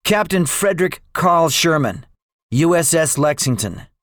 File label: debriefing, english; War Thunder